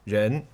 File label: "Ren", Chinese